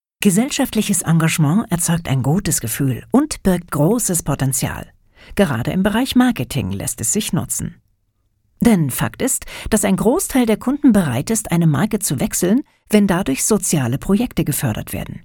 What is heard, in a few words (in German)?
erklaerfilm particulate